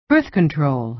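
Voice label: "birth control" American English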